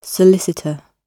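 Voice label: "solicitor", British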